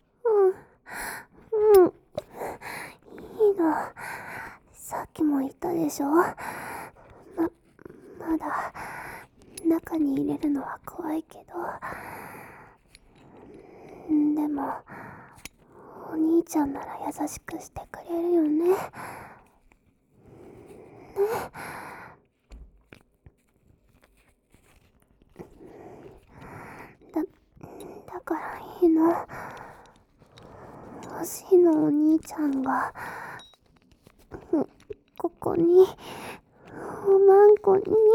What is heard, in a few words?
tsundere, ASMR